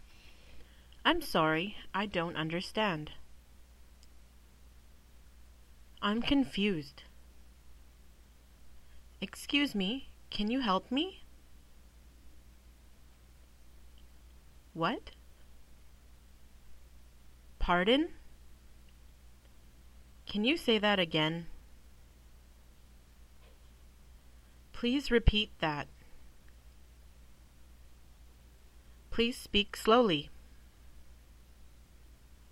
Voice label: Classroom English